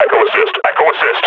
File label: near panic; Half Life Model Pack